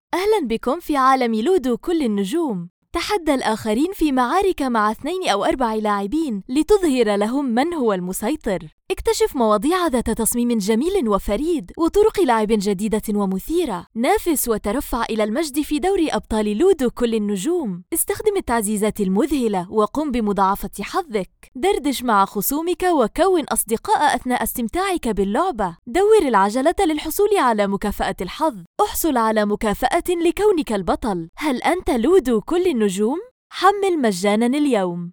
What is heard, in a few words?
Mobile Game Promo; Ludo All-Star